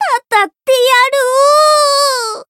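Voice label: Voice retire, Japanese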